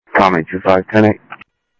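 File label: Sheriff Lake Patrol, scanner audio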